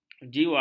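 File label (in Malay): "jiwa", Malay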